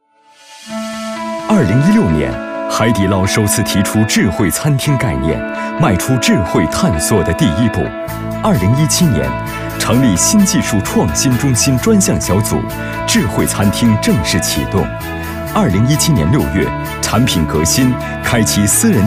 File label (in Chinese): 宣传片 浑厚声音, 男; 海底捞